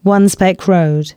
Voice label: announcements, female